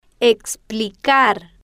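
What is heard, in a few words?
"explicar", Spanish, female, slow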